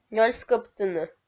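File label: "нёльскӧптыны" Komi-Zyrian, female; kpv audio dict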